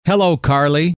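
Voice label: hello, male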